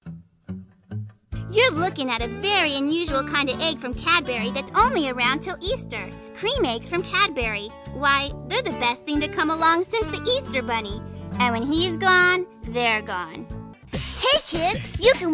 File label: Commercial; Female voices